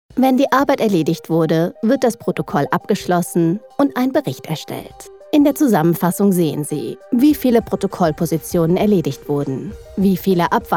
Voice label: Narration German, voiceover; Scania